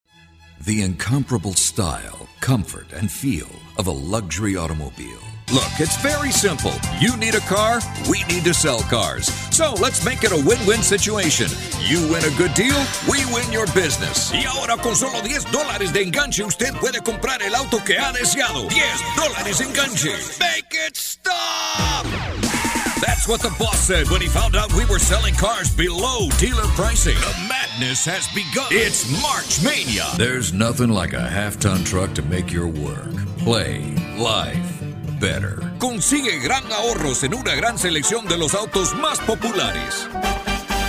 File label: Male Voice Over Talents Automotive English Spanish